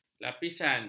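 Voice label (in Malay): "lapisan", Malay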